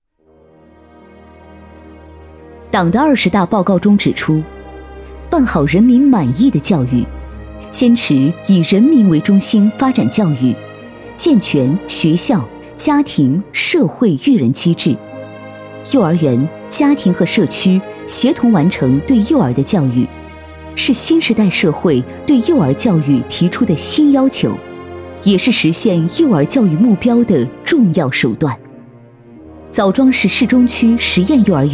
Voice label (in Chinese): 专题 教育大气坚定